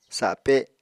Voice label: "sape", Apatani